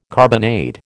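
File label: "carbonade", American English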